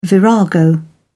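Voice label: "virago", English, British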